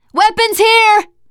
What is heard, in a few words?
spot weapons, teengirl, voice